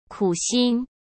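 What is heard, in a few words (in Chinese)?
"苦心", Mandarin Chinese; hsk; chinese-flashcards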